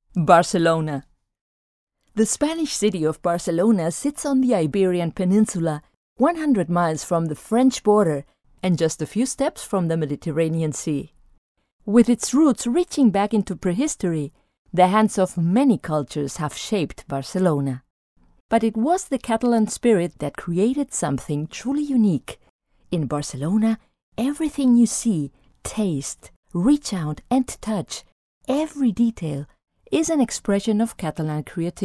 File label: en voices; barcelona